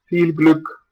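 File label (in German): "viel Glueck", German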